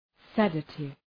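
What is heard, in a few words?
"sedative", English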